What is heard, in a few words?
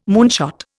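"moonshot", Indian English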